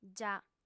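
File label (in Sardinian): "già", female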